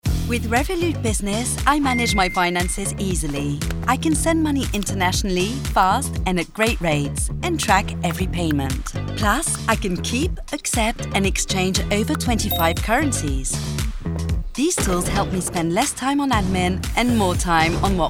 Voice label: UK; Revolut Business